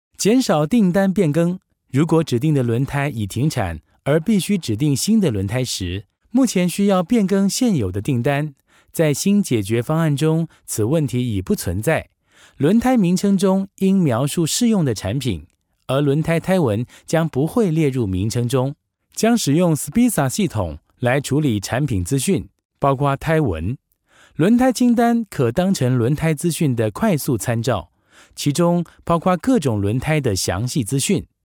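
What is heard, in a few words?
Chinese Taiwanese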